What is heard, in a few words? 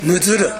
"muzuru", minamiyamagata